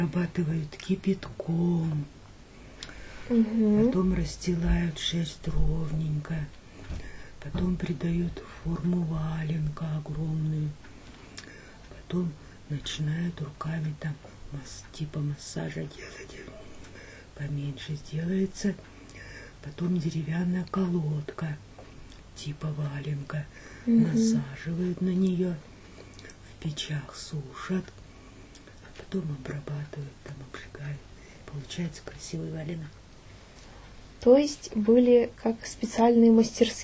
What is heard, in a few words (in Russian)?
Русские. Изготовление валенков. Интервью; с. Дружба; алейский район